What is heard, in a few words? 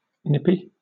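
"nippy", British English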